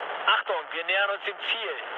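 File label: German near waypoint mood low, speech; War Thunder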